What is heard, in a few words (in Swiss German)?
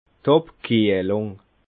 "abkiehlung", alsace